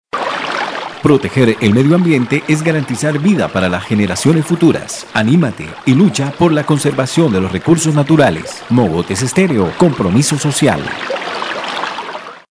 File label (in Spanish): Spot medio ambiente